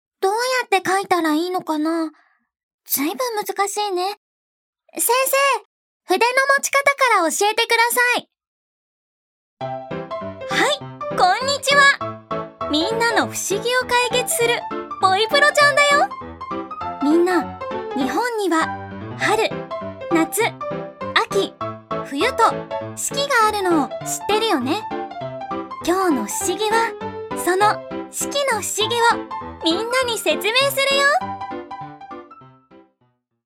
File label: voice kids